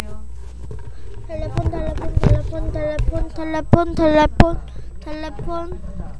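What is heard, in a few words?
"telephone", spoken word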